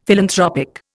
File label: "philanthropic", Indian; Dictionary